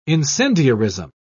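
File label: "incendiarism", British English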